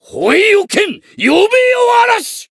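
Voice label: Voice variable chant, Japanese; cyrus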